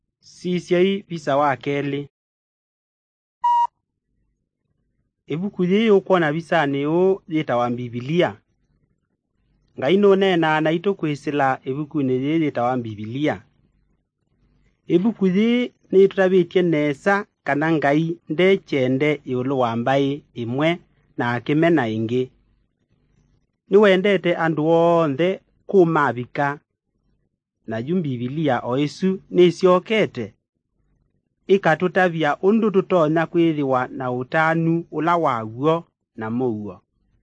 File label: kikamba drama; good news; bible